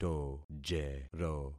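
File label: "tojero", concatenated syllables; Affixing experiment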